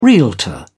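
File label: "realtor", British English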